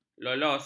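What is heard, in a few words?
"lolos", Malay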